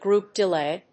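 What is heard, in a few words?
"GROUP DELAY", English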